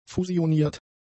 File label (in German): "fusioniert", German; adjectives